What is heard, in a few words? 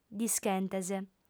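"dischentes", Sardinian, female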